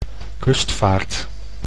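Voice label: "kustvaard", Dutch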